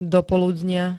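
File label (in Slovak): "dopoludnia", Slovak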